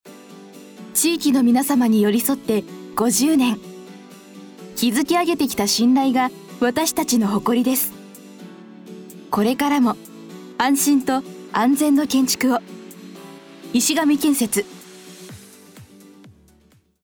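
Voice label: narration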